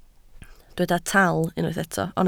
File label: "taal", Welsh